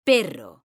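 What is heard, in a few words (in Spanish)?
"PERRO" Spanish, female; mekanta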